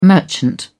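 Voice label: "merchant", British English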